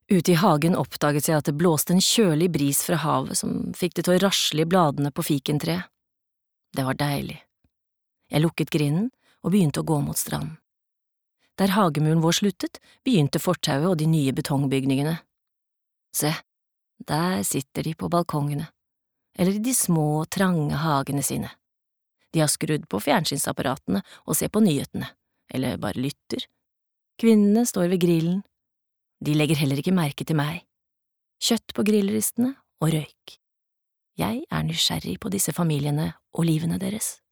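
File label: no audio book, Norwegian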